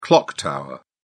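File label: "clock tower", British English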